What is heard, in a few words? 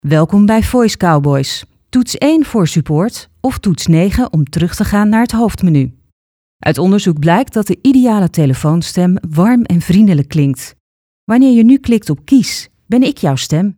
voicemail